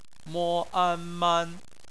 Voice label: "man", Chinese; phonetics

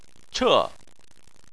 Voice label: "che4", chinese

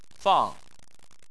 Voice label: "fang4", Chinese

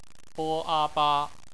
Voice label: ba, Chinese Phonetics

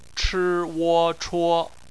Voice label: "chuo", Chinese; phonetics; bpmf

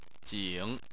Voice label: "jing3", Chinese; Chinese Surnames

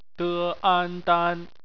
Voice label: "dan", Chinese; phonetics; bpmf

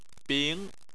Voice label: "bing2", chinese

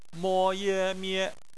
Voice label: "mie", Chinese; phonetics

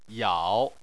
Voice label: "yao3", Chinese

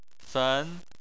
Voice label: "fen2", Chinese

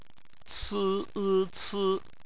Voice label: "ci", Chinese; phonetics; bpmf

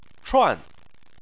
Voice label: "chuan4", Chinese

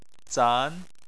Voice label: "zan2", Chinese

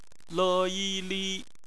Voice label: "li", Chinese; BPMF spelling; phonetics

